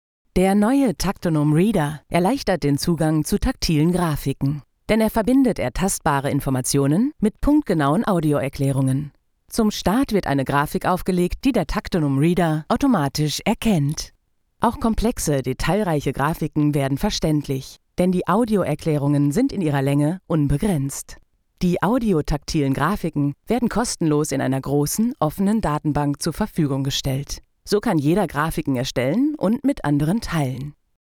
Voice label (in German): Erklaerfilm; Tactonom Reader